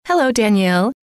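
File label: "hello", female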